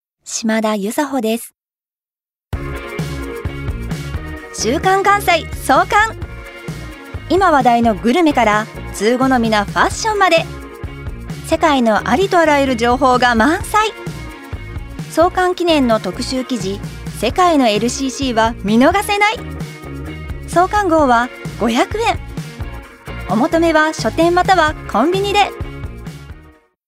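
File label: commercial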